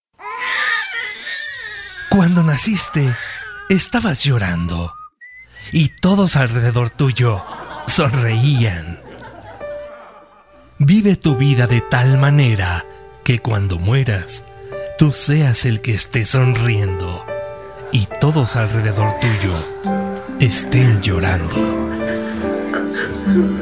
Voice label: locutor emotivo

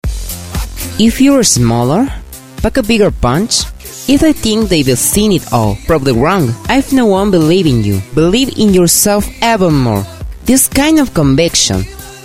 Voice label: ingles oficial comercial

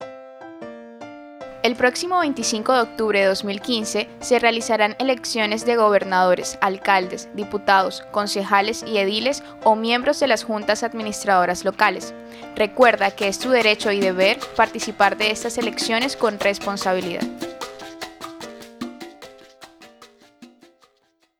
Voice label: promo elecciones

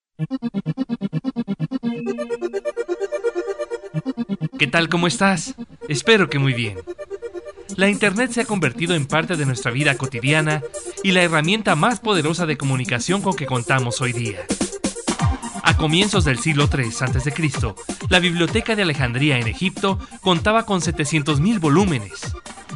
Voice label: locutor emotivo; la internet

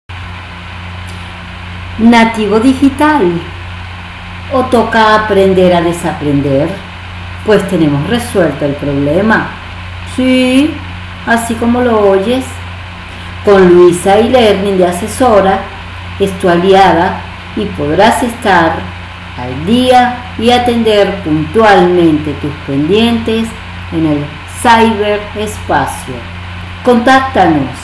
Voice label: spot radial

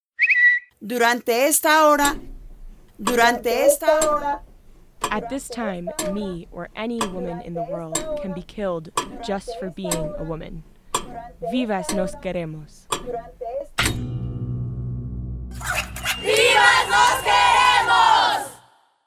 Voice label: radiotazo ingles

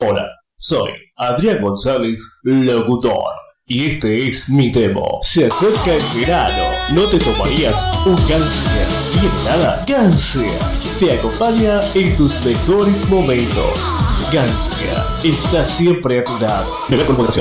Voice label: publicidad; gancia

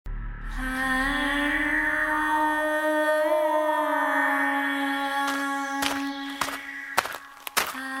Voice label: mix poema caminando con compresion

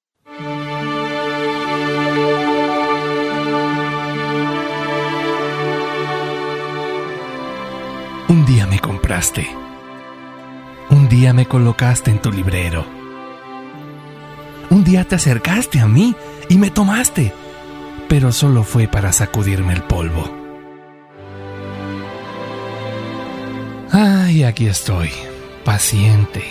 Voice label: locutor emotivo; soy tu libro

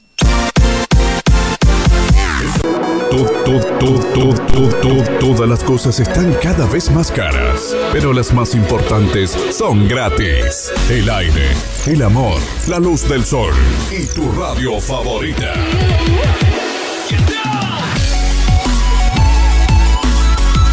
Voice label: separador tu voz y tu musica

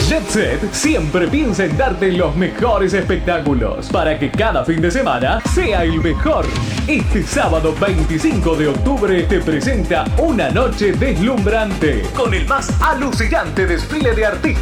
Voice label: locutor

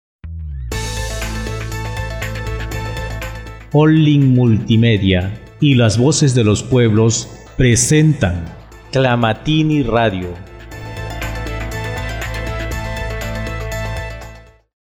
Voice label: spot las voces; tlamatini

